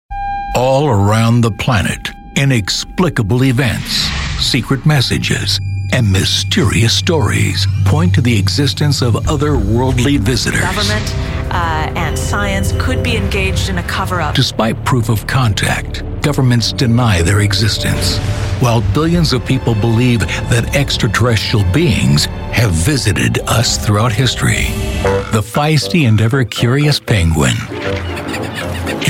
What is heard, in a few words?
TV Narration